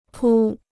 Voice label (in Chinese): "扑", Chinese